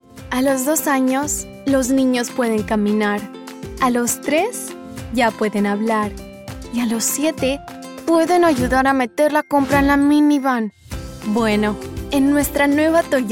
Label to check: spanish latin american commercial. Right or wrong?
Right